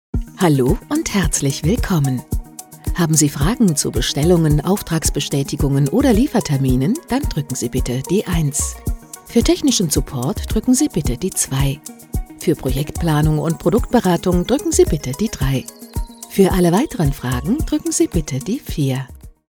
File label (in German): Telefonansage